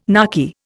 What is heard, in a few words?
"narky", Indian